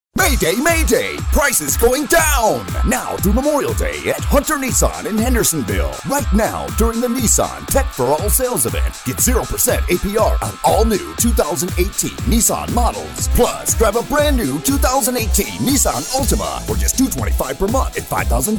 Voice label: High Energy Fast Talker High Energy Energetic Car Salesman; NISSAN